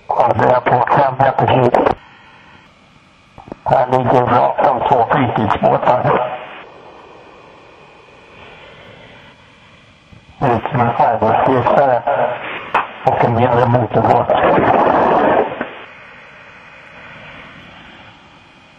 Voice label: diver talk 20 meter